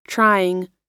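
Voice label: "trying", US English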